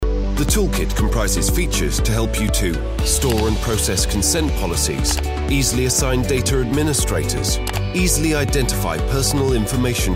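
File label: Explainer, voice-over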